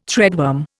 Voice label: "threadworm", Indian

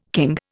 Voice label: "king", Indian

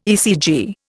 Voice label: "ECG", Indian

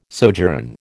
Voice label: "sojourn", American

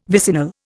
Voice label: "vicinal", Indian

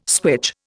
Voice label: "switch", Indian

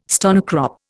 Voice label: "stonecrop", Indian

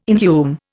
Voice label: "inhume", Indian

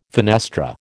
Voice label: "fenestra", American

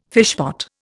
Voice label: "fishpot", Indian

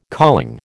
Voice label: "calling", American

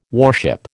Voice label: "warship", American

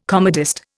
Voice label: "comedist", Indian

